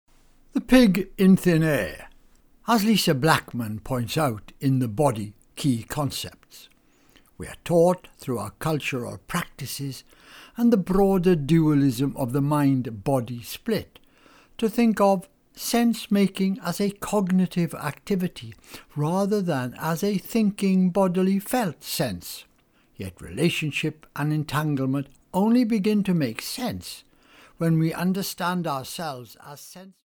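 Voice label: English Welsh, male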